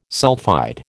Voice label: "sulphide", American